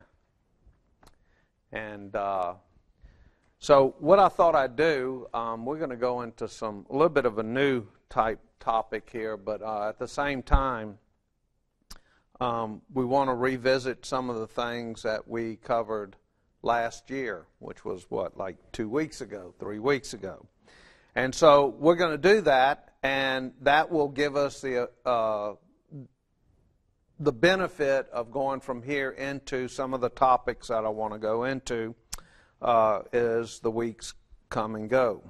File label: English